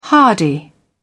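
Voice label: "hardy", British English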